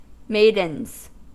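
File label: "maidens", US English; pronunciations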